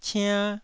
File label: "ciann1", taiwanese